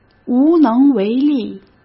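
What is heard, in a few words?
"无能为力", Mandarin Chinese; chinese-flashcards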